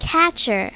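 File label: "catcher", English; My Talking Dictionary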